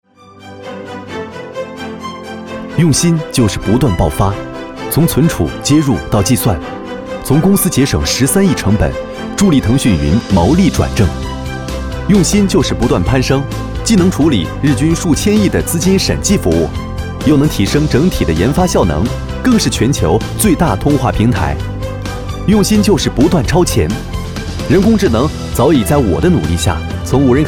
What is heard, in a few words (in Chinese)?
男 智慧时尚; 腾讯